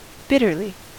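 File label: "bitterly", American English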